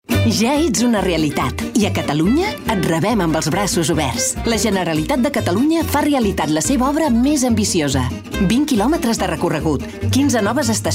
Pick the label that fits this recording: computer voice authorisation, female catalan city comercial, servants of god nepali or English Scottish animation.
female catalan city comercial